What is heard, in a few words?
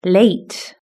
"late", british english